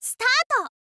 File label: "start", info girl voice